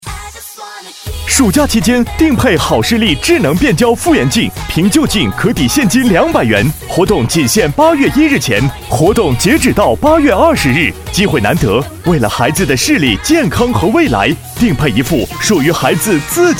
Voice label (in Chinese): 男 广告 年轻时尚; 好视立